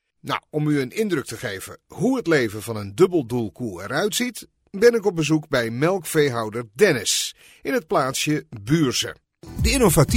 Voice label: male dutch voice over talent narrative